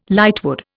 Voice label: "lightwood", Indian